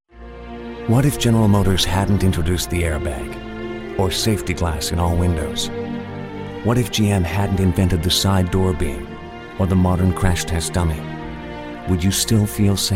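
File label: M, English Canada, male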